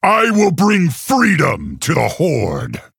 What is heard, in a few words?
Male Orc Start